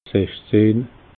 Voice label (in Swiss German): "sachzehn", alsace